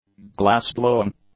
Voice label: "glassblowing", English